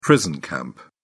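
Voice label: "prison camp", British English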